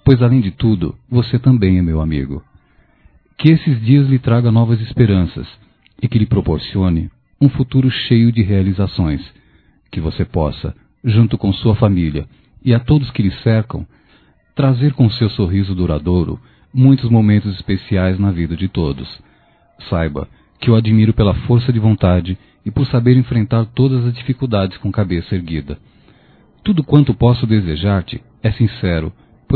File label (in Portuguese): Aniversário Cunhado Masculino; Aniversarios; Telemensagens 2017